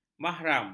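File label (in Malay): "mahram", Malay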